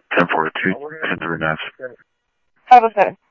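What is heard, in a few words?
police radio dispatch, ScottsdalePolice; SCTSDLE PD - PD1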